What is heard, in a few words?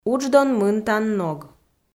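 "удждон мынтан ног", Komi, female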